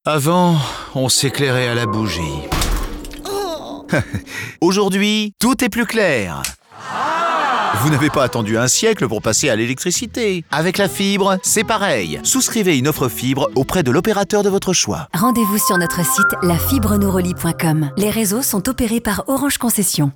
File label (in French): Spot Radio